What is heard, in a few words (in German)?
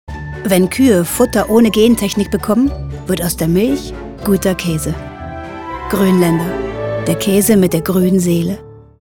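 Käse Werbung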